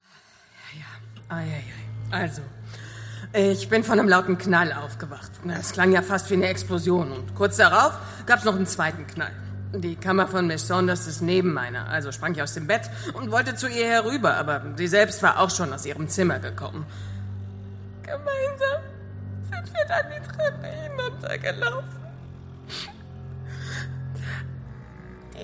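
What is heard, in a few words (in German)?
Hörspiel Charge beide Frauenrollen; sherlock holmes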